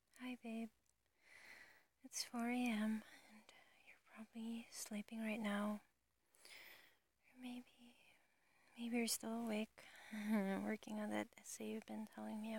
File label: F4A I know you're studying so hard Voicemail